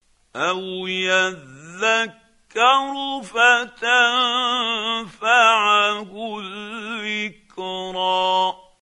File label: quran, Hussary, tafseer; Abasa